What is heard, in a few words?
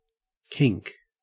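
"kink", Australian English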